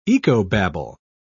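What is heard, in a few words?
"ecobabble", British English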